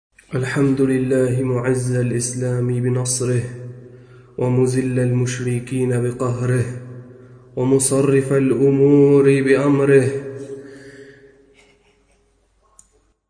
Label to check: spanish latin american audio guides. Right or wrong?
Wrong